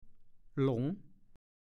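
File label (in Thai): "หลง" ภาษาโคราช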